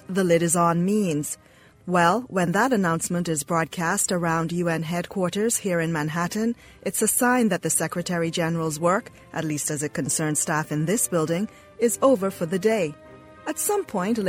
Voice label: Podcast; The Lid Is On; Angry Birds